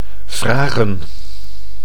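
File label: "vragen", Dutch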